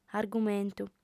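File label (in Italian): "argumentu", lingua sarda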